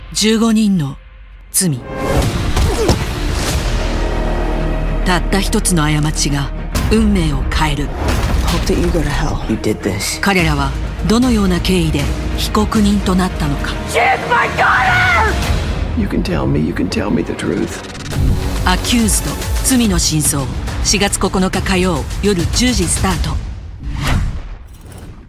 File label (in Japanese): 低め、サスペンス、シリアス; ACCUSED／罪の真相